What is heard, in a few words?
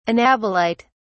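"anabolite", British English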